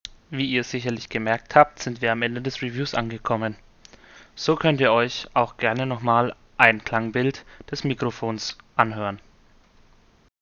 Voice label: JBL Quantum 600; Review